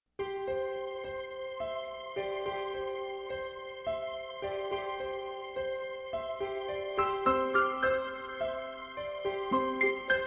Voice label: Locutor Homenagem a Familia

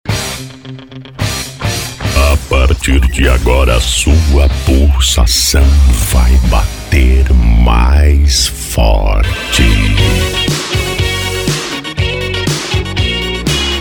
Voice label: Locutor, Flash Back; So se for Flash Back

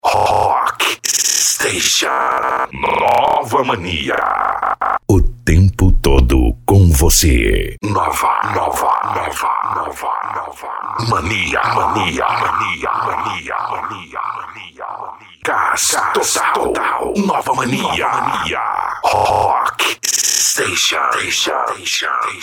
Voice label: Locutor Radio; Jovem Pan; Radio Nova Mania

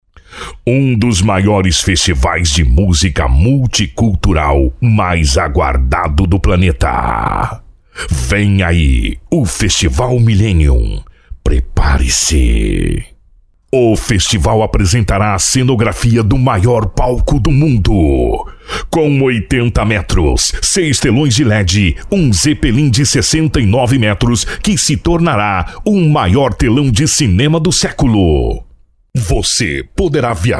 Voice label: Locutor Apresentacao Voz Epica; Festival Voz Epica

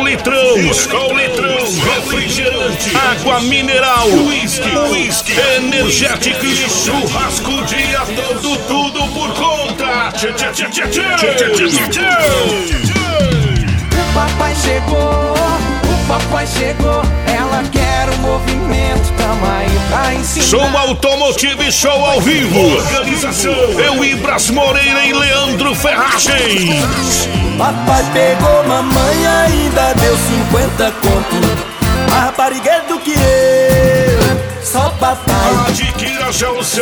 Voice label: Locutor, Rodeio; Comitiva 8 Segundos

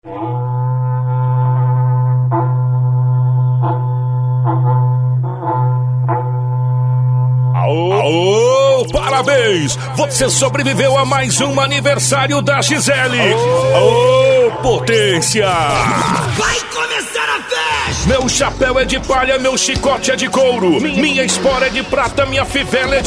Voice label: Locutor, Aniversario

